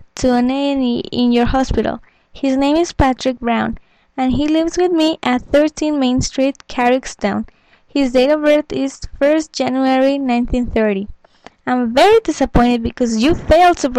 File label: telephone complaint voicemail